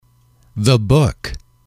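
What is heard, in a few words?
"the book", English, male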